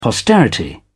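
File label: "posterity", British English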